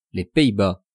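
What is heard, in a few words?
"les pays bas", French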